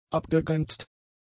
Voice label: "abgegrenzt", German; adjectives

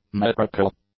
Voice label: "metrical", english; adjectives

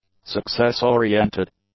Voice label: "success-oriented", English; adjectives

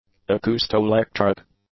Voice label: "acoustoelectric", English; adjectives

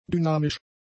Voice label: "dynamisch", german; adjectives

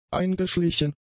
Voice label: "eingeschlichen", German; adjectives

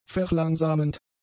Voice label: "verlangsamend", German; adjectives

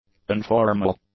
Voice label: "unformal", english; adjectives

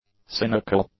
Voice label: "cynical", English; adjectives

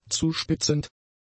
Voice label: "zuspitzend", German; adjectives

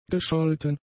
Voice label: "gescholten", German; adjectives

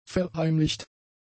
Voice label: "verheimlicht", German; adjectives

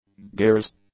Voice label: "gears", English; nouns

